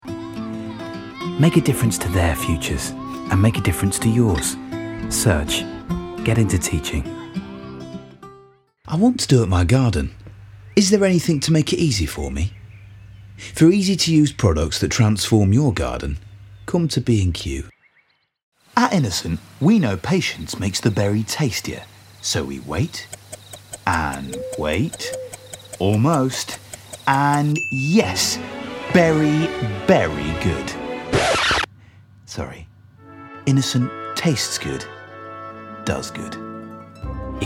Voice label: Commercial